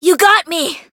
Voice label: Voice damaged; kikyou

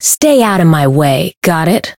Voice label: Voice variable chant; minalca

